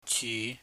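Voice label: "chi", Cantonese, low rising tone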